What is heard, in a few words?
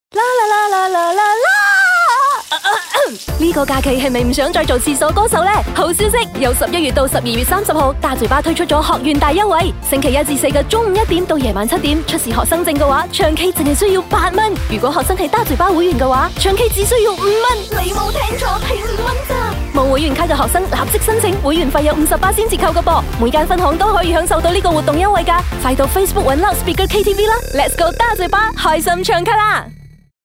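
Chinese; OS MARKETING; STUDENT CARD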